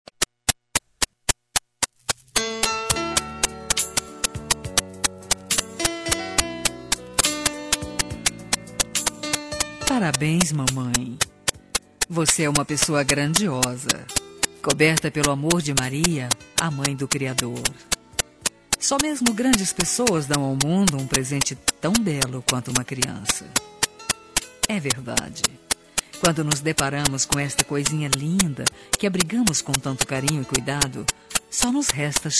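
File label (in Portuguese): MATERNIDADE FEMININO, Telemensagens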